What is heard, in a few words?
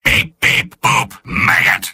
robot